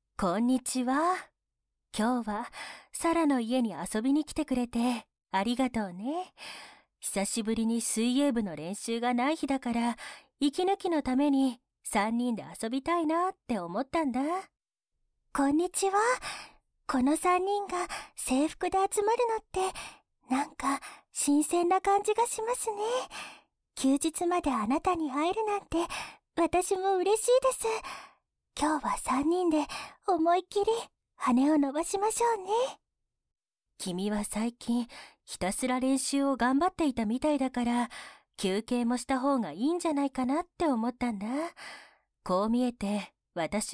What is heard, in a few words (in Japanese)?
耳かき 効果音無し; 水泳部女子二人の優しい耳かき♪